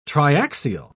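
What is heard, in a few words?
"triaxial", British English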